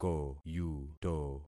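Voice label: "koyuto", concatenated syllables; Affixing experiment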